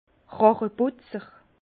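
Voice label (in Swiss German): "racheputzer", Alsace Bas Rhin; vin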